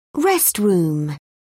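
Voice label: "restroom", English, British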